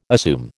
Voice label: "assume", American